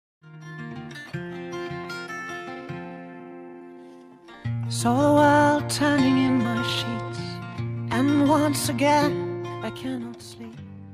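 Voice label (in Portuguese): ciumes fem, Telemensagens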